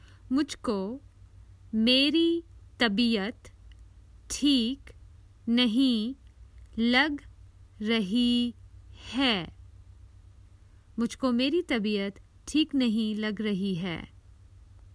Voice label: Common Hindi Phrases for travel I feel ill

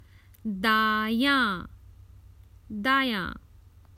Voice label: Common Hindi Phrases for travel Right

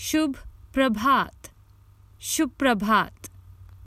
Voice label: Good morning, Common Hindi Phrases for travel

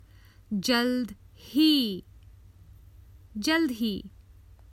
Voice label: Common Hindi Phrases for travel Soon

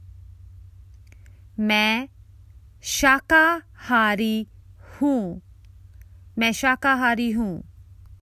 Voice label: Common Hindi Phrases for travel I'm a vegetarian

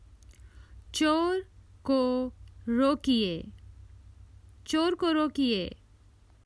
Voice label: Common Hindi Phrases for travel Stop thief

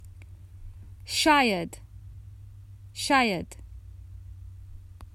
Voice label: Common Hindi Phrases for travel Maybe

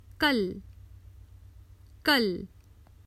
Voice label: Common Hindi Phrases for travel Tomorrow

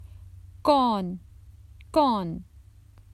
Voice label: Who, Common Hindi Phrases for travel

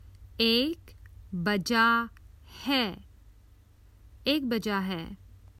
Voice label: Common Hindi Phrases for travel Its one o'clock

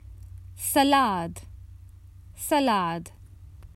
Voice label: Salad, Common Hindi Phrases for travel

